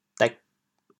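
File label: "dêg4", Teochew, chaoyang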